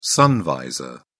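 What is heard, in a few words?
"sun visor", British English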